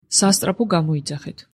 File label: Call the ambulance, georgian